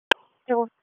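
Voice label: Police Northeast District Disp, radio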